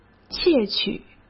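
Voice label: "窃取", Mandarin Chinese; hsk; chinese-flashcards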